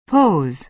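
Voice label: "pose", American English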